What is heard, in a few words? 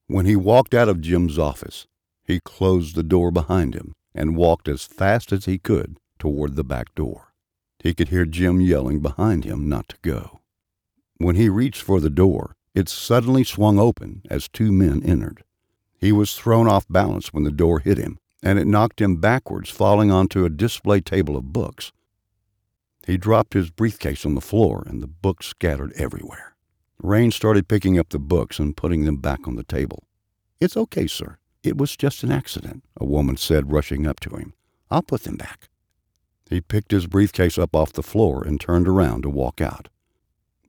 M F M Dialogue; 3rd person